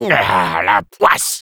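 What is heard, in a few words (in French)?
negative vocalization, French; Sniper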